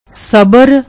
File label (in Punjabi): "sbr", Punjabi; ਸਬਰ